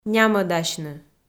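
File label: "нямӧдасьны", Komi, female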